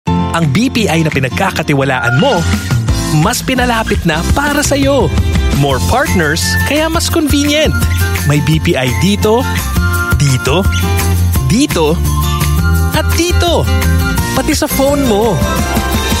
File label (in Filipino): Tagalog; May BPI Dito